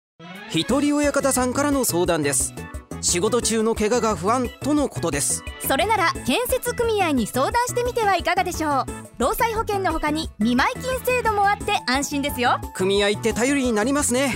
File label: radio; osaka